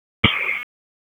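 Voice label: Police Northeast District Dispatch; Raleigh; wake p25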